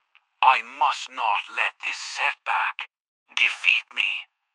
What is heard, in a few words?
upset; fireball